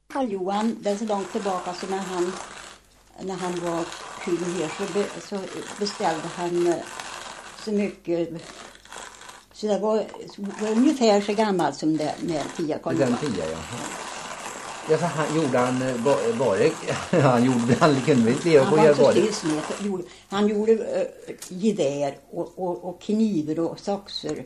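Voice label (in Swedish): Gunnarskog Värmland, Svenska sagor, dialekter; Folkminnen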